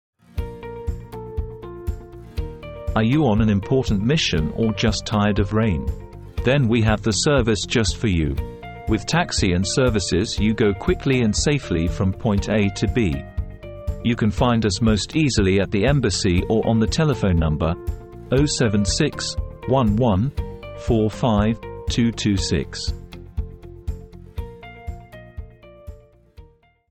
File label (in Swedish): Taxi